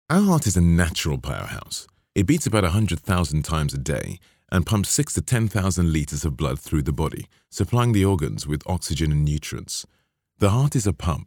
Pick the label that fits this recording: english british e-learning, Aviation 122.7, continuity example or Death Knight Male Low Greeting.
english british e-learning